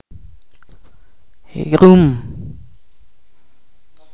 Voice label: "tierra" in Ch'orti'